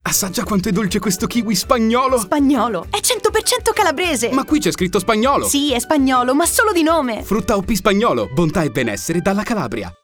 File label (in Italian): spot con musica